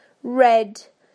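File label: "red", British English; PictureDictionary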